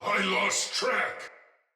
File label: Sight Lost Shout, Barks Battle Soldier; Sinecure Soldier